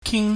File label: "king", chinese